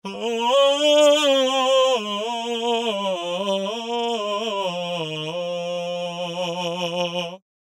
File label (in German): Drive- bzw. Sättigungseffekt im SRC- und im ADT-Modul, Die Stimme wirkt rauer, voller und durchsetzungsfähiger; Waves Abbey Road Studios Reel ADT